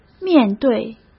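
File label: "面对", Mandarin Chinese; hsk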